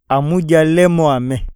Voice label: eat, iyasa